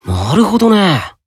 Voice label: Emo Yes